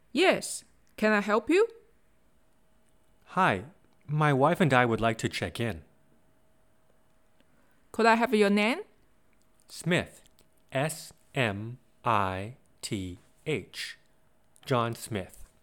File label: basic english dialogs travel